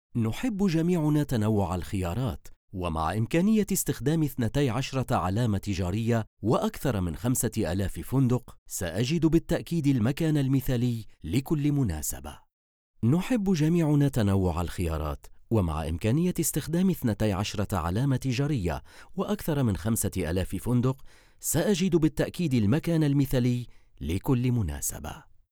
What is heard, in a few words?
Documentary